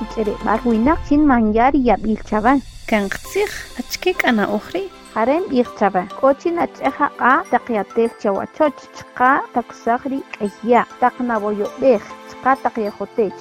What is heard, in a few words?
SPOT KAQCHIKEL COVID-19